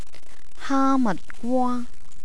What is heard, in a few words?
"haamatgwaa", Cantonese